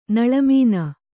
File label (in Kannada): "ನಳಮೀನ", kannada